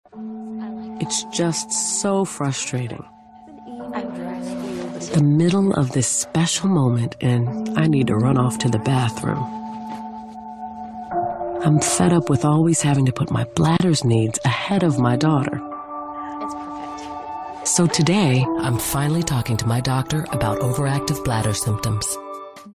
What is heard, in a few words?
commercial, commercial women